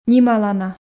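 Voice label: "нималана", Komi, female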